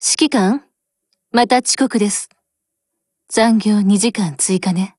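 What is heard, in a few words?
HELLO, Japanese; GF Alarm; FG42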